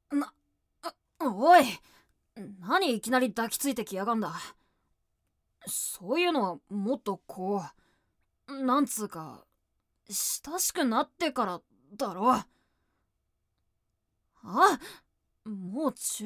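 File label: tsundere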